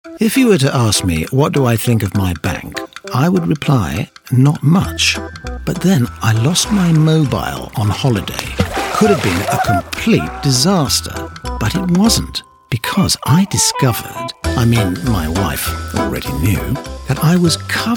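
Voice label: Commercial; Natural Accents: Neutral, RP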